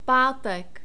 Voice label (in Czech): "pátek", czech